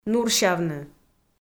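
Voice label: "нурсявны", Komi, female; kpv; audio dict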